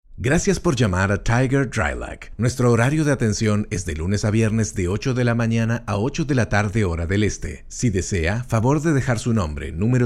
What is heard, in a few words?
IVR Spanish